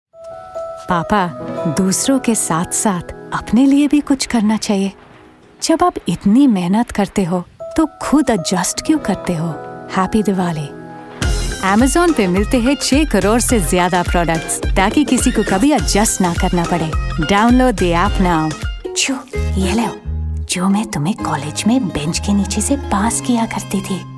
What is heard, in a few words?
commercial Hindi, warm, intelligent and engaging voice; based in London but grew up in Mumbai